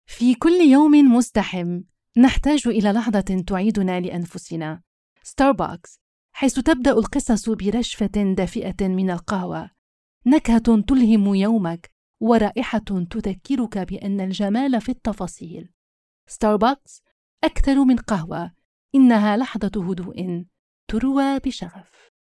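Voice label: Arabic, Saudi Arabia, female; voice over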